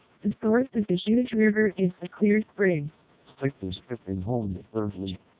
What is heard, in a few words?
melpe 600 snr 10 db